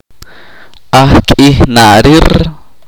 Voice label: "estar enojado", chorti